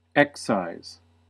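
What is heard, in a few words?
"excise", American English; pronunciations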